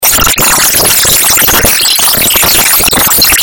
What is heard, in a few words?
Story; Bodi-Budaari; Gilgit